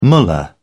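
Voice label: "mullah", British English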